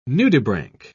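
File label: "nudibranch", British English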